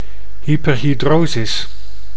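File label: "hyperhidrosis", Dutch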